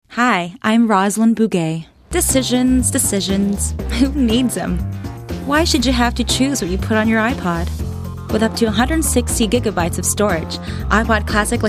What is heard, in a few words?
commercial female